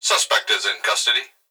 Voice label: Emergency Human Male Voice Police Dispatch Suspect Is In Custody; emergency effects